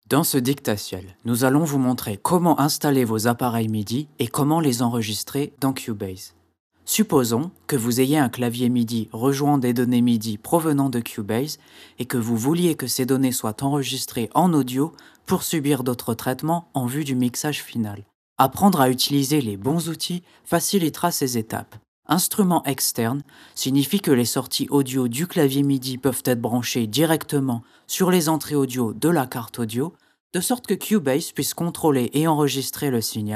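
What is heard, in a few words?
French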